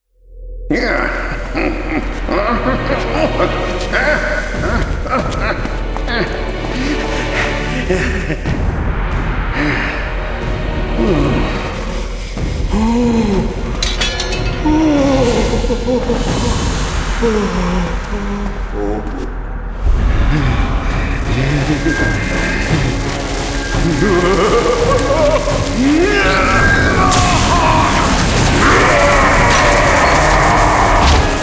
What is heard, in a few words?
cinematic voice, ru; lineage2